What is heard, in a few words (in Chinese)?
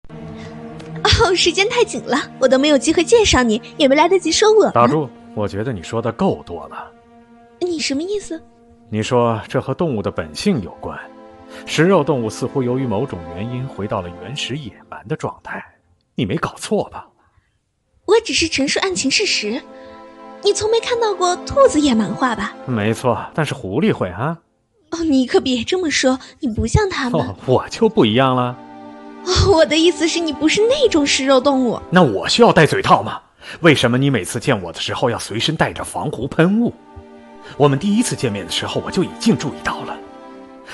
动漫; 朱迪